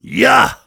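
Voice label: Heavy yes, German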